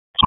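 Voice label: EMS Dispatch; wake; p25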